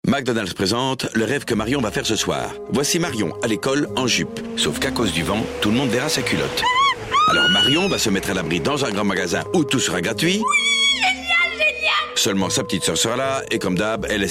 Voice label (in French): radiopub spot